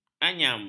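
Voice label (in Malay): "anyam", Malay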